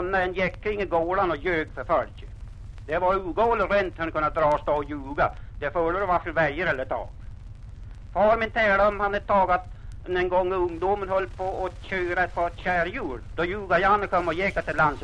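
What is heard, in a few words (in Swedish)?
Alfta Hälsingland, dialekter; svenska sagor; Folkminnen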